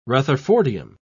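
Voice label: "rutherfordium", British English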